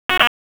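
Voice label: Police Northeast District Dispatch, p25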